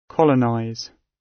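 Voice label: "colonize", British; Space, the planets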